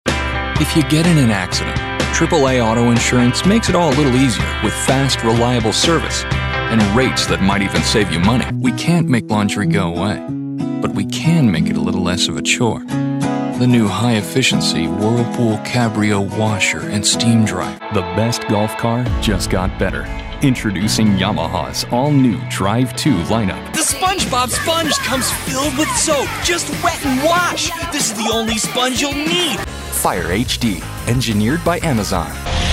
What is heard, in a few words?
Male Voice Over Talents Commercial